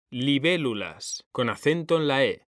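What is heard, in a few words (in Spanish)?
"libélulas", Spanish, male; mekanta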